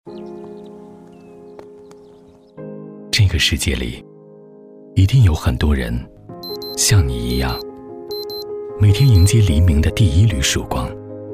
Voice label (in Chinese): 其他 微电影, 男; 品质于心匠心于行